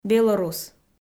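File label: "белорус", Komi, female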